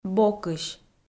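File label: "бокысь", Komi, female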